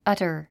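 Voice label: "utter", English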